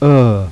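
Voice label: neutral half close central inverted e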